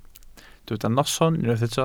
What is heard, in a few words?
"noson", Welsh